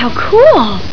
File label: female how cool